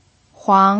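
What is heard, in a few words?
"huang" second tone, pinyin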